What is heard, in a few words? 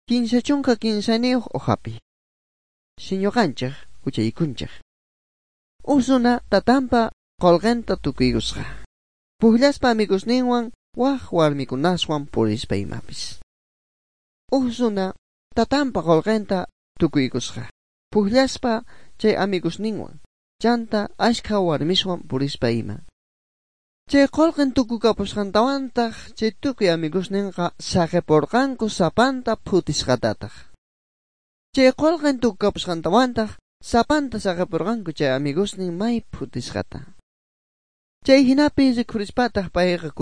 good news quechua bolivian sucre; bible